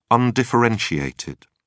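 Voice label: "undifferentiated", British English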